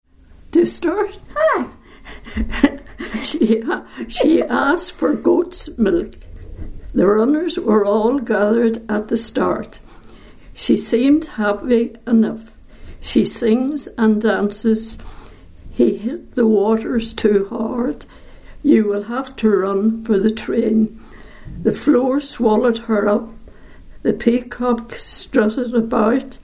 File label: sentences, dialects ni